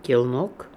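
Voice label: "Kelnuk", pronunciations; Fourth Way; Beelzebub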